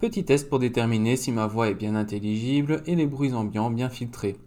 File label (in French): Blue Snowball Classic Enregistrement Cardoide